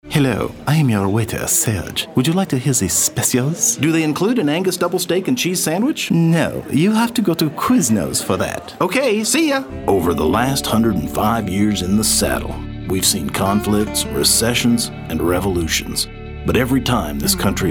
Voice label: Character